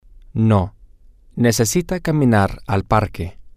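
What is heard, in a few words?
no she needs to walk to the park, spanish, male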